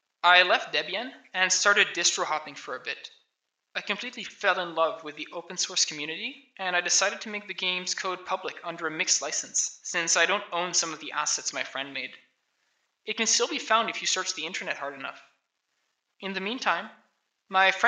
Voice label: voice, narration